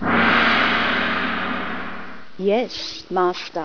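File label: gong, Female voice, (gong sound) "Yes, Master."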